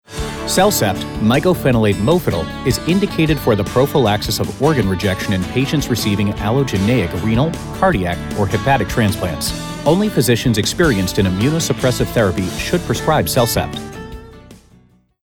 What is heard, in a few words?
reassuring casual pharmaceutical; genentech cellcept